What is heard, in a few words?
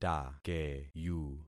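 "takeyu", concatenated syllables; Affixing experiment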